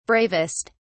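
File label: "bravest", British English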